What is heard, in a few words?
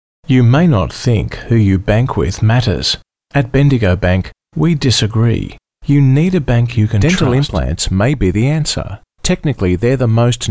Soft Sell